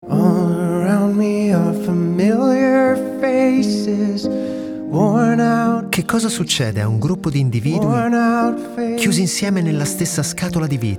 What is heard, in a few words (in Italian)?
narratore; il collegio